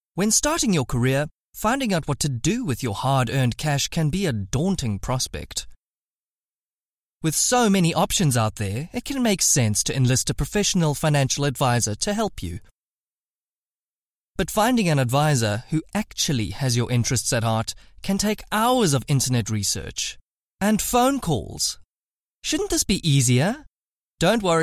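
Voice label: English South African male narration; accent of south africa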